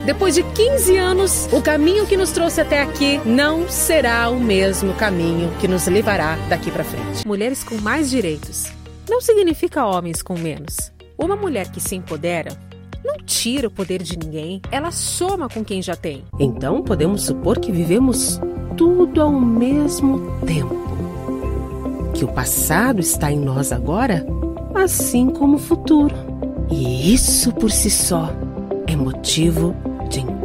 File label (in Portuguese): Voz institucional e manifesto